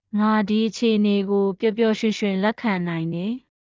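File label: Myanmar, burmese; express emotions; phrases; a collection of phrases to express emotions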